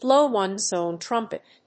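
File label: "blow ones own trumpet", English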